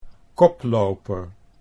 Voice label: "koploper", Dutch